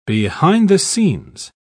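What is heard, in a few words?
"behind-the-scenes", en, uk British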